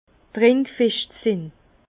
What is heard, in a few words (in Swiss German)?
"trinkfescht", Bas Rhin; vin; qui tient bien l’alcool